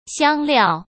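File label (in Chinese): "香料", Mandarin Chinese; hsk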